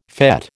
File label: "fÈte", American